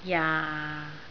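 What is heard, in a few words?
"jaa6", Cantonese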